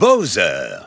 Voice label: French EU Announcer; Bowser; SSBB